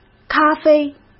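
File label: "咖啡" Mandarin Chinese; hsk; chinese-flashcards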